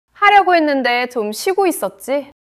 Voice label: neutral, monologue

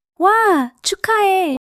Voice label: excitement, monologue

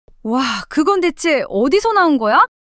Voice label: surprise, monologue

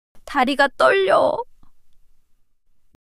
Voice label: fluster, monologue

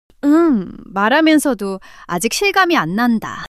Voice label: fluster; monologue

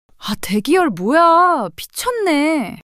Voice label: surprise, monologue

